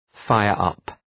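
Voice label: "fire up", English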